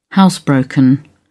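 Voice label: "housebroken", British English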